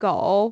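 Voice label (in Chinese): "狗" Chinese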